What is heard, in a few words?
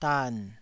"dan3", Taiwanese syllable